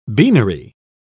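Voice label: "beanery", English, British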